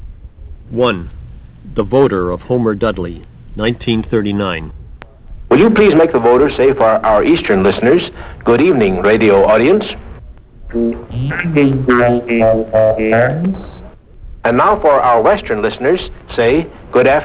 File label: voder